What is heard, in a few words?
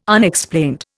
"Unexplained", Indian